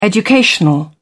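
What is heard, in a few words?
"educational", British English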